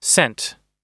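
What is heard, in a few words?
"cent", American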